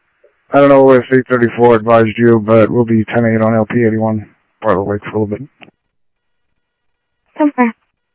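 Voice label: Sheriff Lake Patrol, scanner audio trunk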